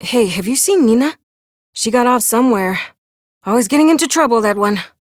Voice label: Ambient Barks, en; Warframe; Mall Romance; Lettie